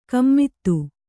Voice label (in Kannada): "ಗುಮ್ಮತಿ", Kannada